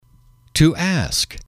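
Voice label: "to ask", English, male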